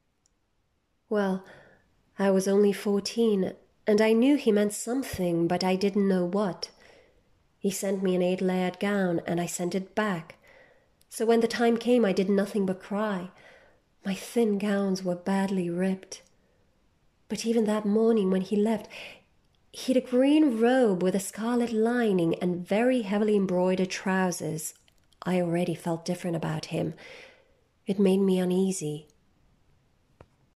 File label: BRITISH ENGLISH